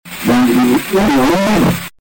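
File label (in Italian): "dalli", Italian; Dalli fino a lì!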